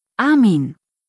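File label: "Armin", German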